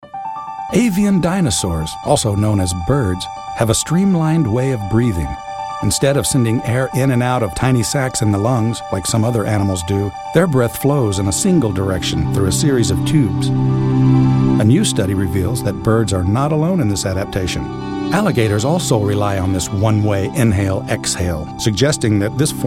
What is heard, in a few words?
narrative